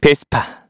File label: Vox, kr; Evan; Vespa; kingsraid